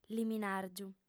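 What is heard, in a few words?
"liminàrgiu", female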